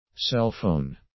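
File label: "cell phone", English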